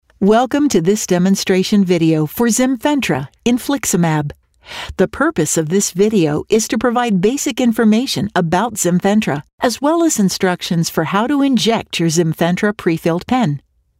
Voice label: english american medical narration